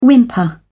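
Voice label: "whimper", British English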